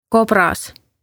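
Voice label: "kobras", Estonian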